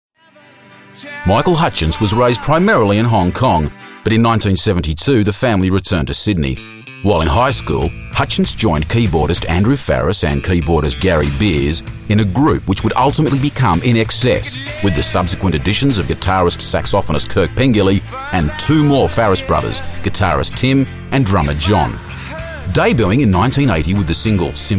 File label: australian documentary, voicereel